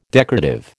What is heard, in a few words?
"decorative", American